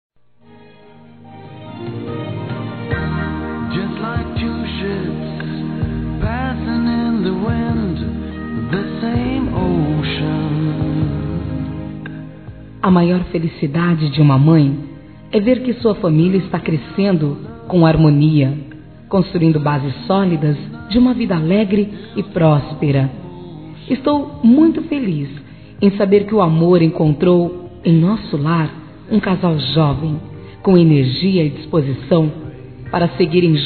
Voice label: nora fem

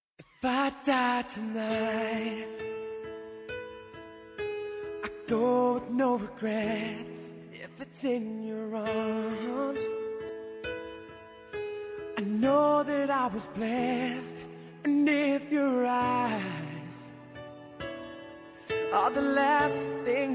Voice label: Agradecimento para Filha, masc

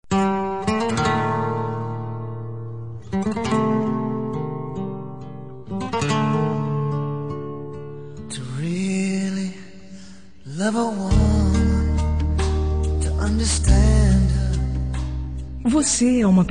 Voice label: aceito como voce e fem

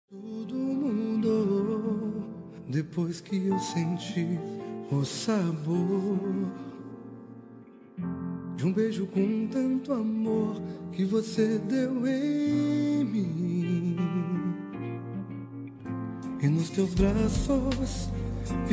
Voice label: aniv casamanto masc

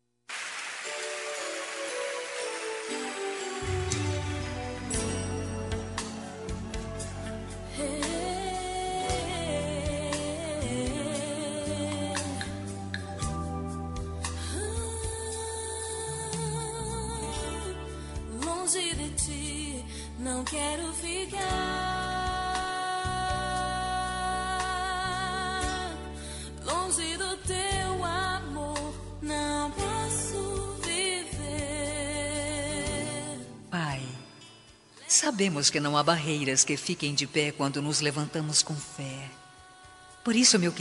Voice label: pai fem gospel

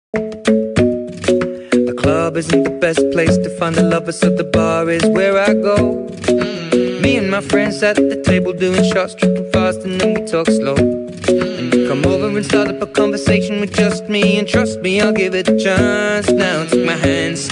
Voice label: aniv de irma fem

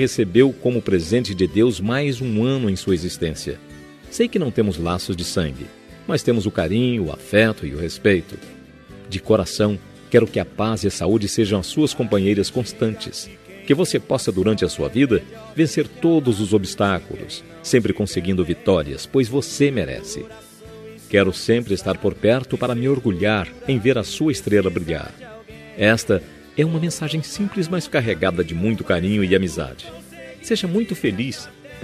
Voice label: enteado masc

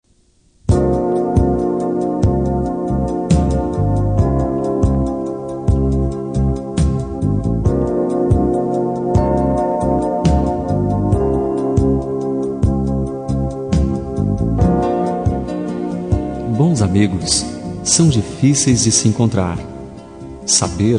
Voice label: descu masc

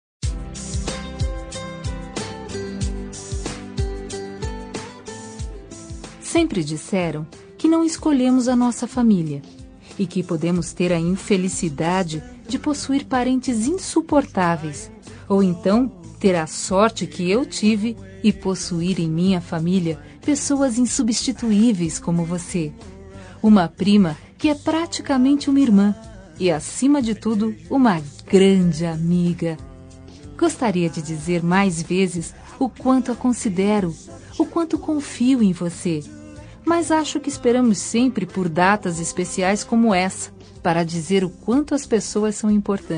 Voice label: fem; prima distante

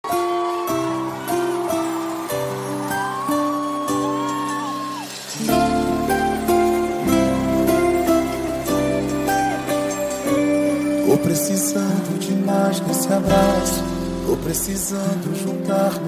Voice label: reconciliacao fem

